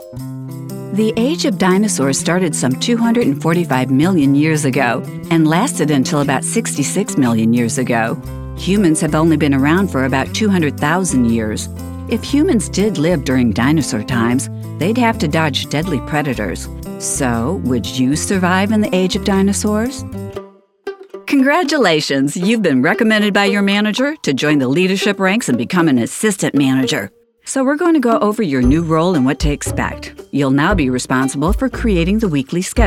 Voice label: E LEARNING